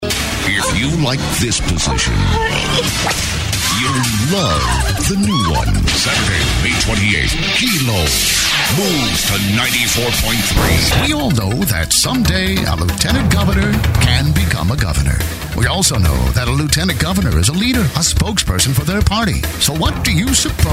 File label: Commercial